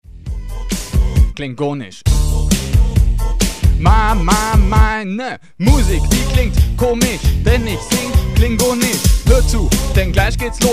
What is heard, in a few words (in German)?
klingonisch; klenginem